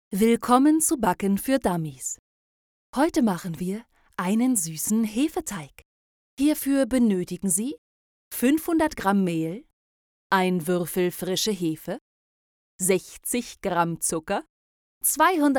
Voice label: explanatory video baking German